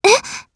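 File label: Vox Japanese; Frey; kingsraid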